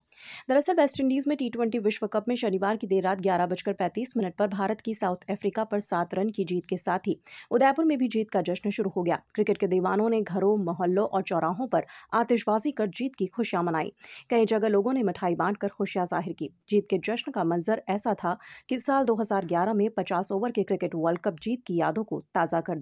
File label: T-20 world cup vo, Hindi